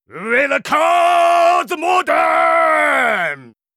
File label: Hero mountain king warcry, zh